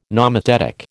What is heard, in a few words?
"nomothetic", American